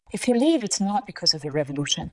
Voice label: Voice Acting, es